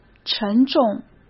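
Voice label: "沉重", Mandarin Chinese; hsk; chinese-flashcards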